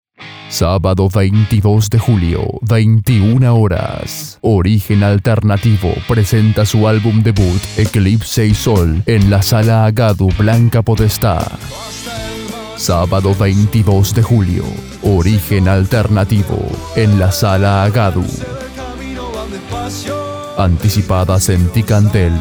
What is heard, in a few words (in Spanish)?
Radio Promo